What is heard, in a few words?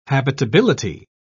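"habitability", British English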